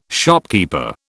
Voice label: "shopkeeper", British

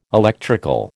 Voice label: "electrical", American

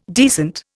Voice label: "decent", Indian

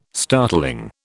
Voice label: "startling", British

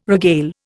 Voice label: "regale", Indian

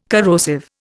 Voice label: "corrosive", Indian

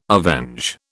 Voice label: "avenge", British

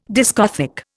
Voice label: "discothèque", Indian

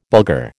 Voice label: "Bulgar", American

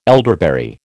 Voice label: "elderberry", American

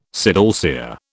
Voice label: "sidalcea", British

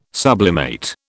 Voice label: "sublimate", British

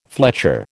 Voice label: "fletcher", American

